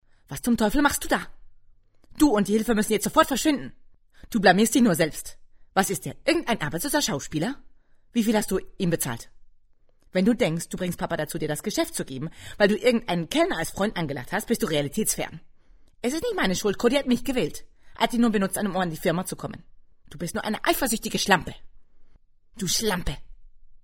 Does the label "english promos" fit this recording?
No